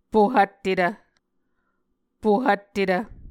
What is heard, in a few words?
"pohvttetv", muscogee